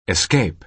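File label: "escape", American English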